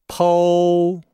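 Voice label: "pou", Chinese; Nihao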